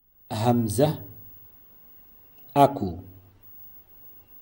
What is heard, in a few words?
Hamza, modern standard arabic